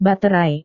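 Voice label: "baterai", Indonesian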